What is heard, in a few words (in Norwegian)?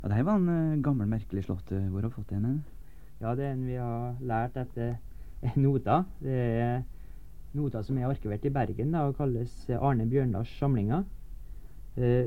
Tale